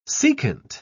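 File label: "secant", British English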